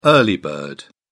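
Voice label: "early bird", British English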